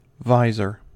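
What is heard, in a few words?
"visor", US English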